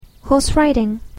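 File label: "horse riding", English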